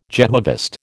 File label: "Jehovist", American